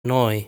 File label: "Noi", Italian